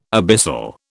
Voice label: "abyssal", British

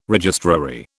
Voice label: "registrary", British

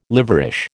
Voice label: "liverish", American

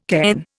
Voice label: "cairn", Indian

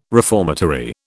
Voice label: "reformatory", British

